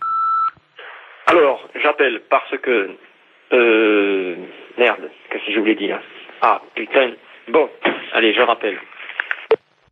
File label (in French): Message rate repondeur